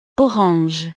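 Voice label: "orange", French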